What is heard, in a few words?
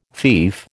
"fief", American